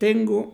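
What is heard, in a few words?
"tengo", Mexican Spanish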